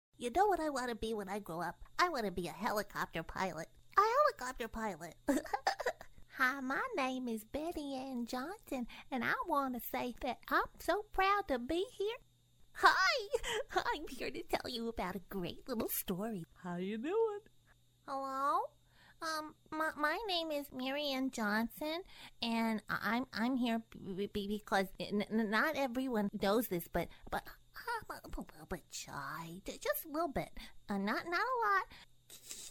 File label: cartoon